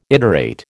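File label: "iterate", American English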